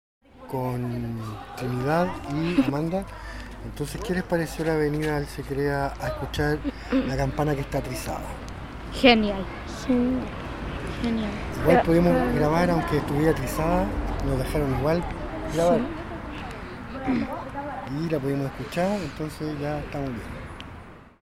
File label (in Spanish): Relato sobre el proceso de grabacion campana; mapa sonoro